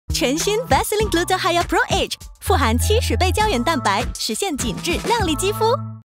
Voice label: Mandarin Character VO, Style: Young Married Couple & Sweet (1st role)